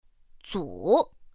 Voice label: "zǔ", pinyin; pinyin2; pinyinji

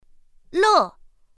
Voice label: "lè", pinyin; pinyin2; pinyinji

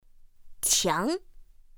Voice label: "qiáng", pinyin; pinyin2; pinyinji

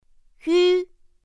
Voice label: "yū", pinyin; pinyin2; pinyinji

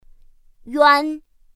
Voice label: "yuān", pinyin; pinyin2; pinyinji

